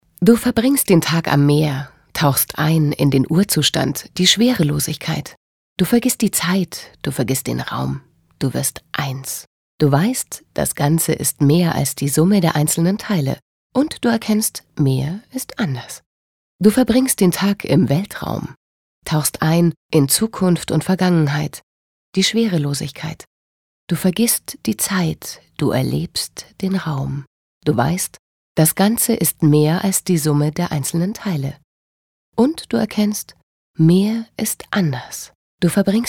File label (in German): Schauspiel Neutral